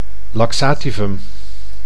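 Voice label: "laxativum", Dutch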